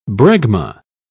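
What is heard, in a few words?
"bregma", British English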